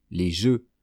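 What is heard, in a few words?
"les jeux", French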